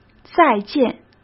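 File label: "再见", Mandarin Chinese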